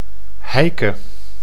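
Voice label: "Heike", Dutch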